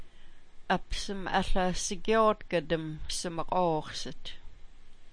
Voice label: "aLa", smalgyax

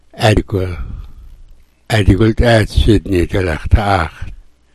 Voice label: "adigwil", smalgyax

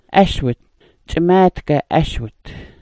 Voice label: "aswit", smalgyax

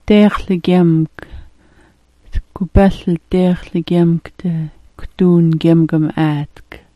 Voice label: "deexlgagyemk", smalgyax

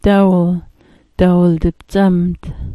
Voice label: "dawil", smalgyax

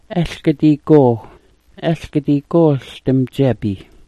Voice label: "alga di goo", smalgyax

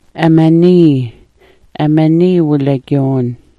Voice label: "amanii", smalgyax

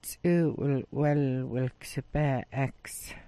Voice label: "'wel'wel", smalgyax

